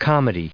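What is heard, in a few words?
"comedy", English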